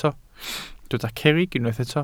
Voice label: "cerrig", Welsh

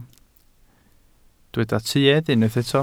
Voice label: "tuedd", Welsh

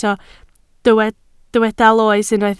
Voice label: "loes", Welsh

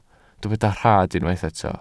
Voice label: "rhad", Welsh

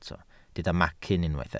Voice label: "macyn", welsh

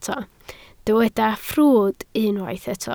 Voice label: "ffrwd", Welsh

